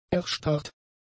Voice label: "erstarrt", German; adjectives

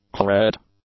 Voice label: "claret", English; adjectives

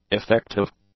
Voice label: "effective", English; adjectives

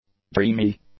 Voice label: "dreamy", English

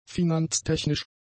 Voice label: "finanztechnisch", German; adjectives

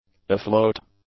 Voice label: "afloat", English; adjectives

